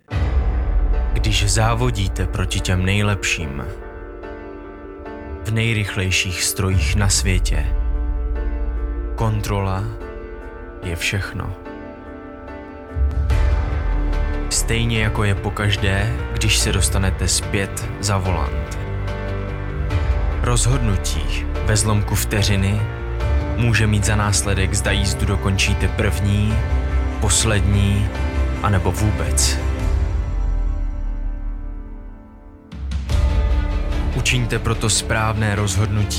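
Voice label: Advertisement Deep, Czech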